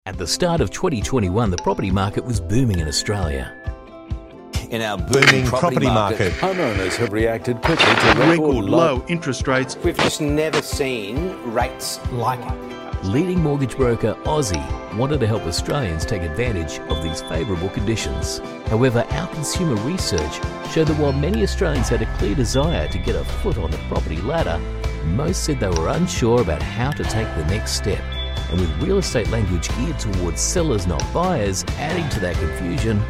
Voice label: Explainer